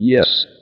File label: "yes", robot